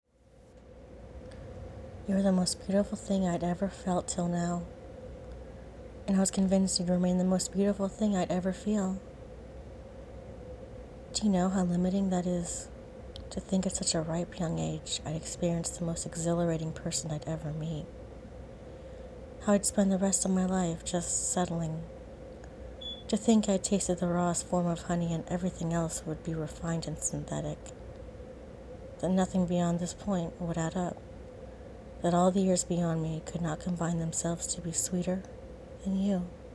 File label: poem; falsehood by rupi kaur